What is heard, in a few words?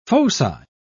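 "foci", British English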